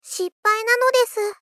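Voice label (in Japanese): Moe Failure Shippai nanodesu, moe loli girl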